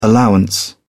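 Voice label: "allowance", British English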